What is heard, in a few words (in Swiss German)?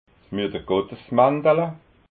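"muetergottesmantala", Alsatian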